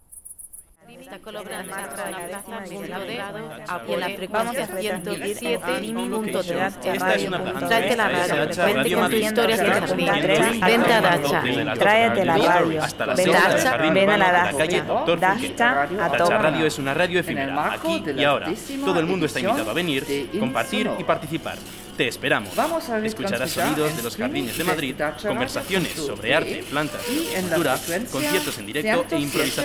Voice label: jingle multivoice